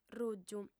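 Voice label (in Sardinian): "rùgiu", female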